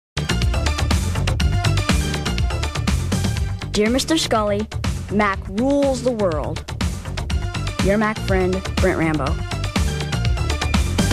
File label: Thumbs Up Computer Kid